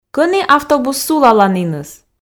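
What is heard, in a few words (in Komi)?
Komi, кӧні автобус сулаланіныс, female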